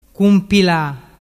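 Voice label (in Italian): "cumpila", Vernazzese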